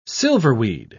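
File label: "silverweed", British English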